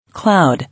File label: "Cloud", English